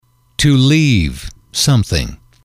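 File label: "to leave something", English, male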